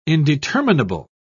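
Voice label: "indeterminable", British English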